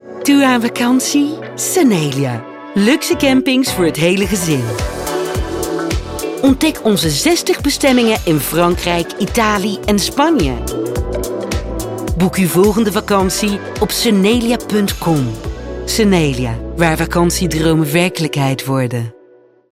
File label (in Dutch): DUTCH NL PUBLICITE; Sunelia